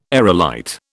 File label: "aerolite", British